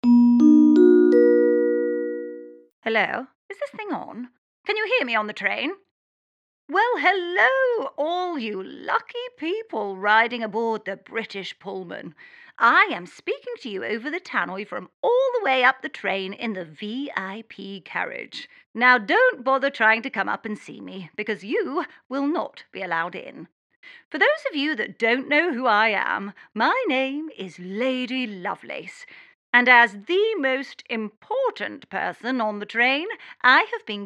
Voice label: voiceover; Lady Lovelace